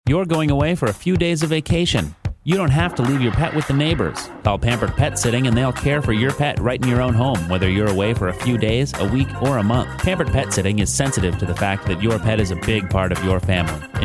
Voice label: radio ad